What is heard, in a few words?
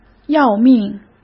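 "要命", Mandarin Chinese; chinese-flashcards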